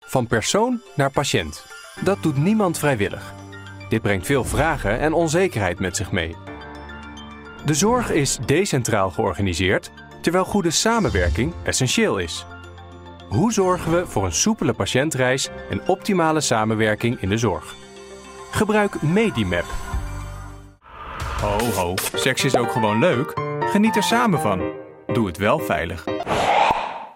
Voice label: dutch medical narration